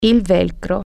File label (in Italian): "velcro", Italian